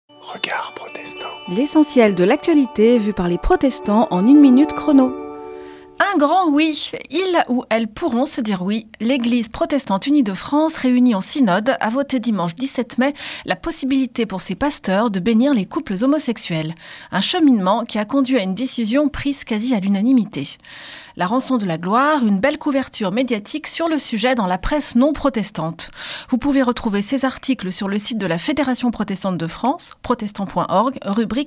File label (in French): Spot radio; Regards protestants